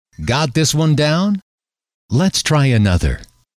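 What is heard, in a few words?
got this one down lets try another, male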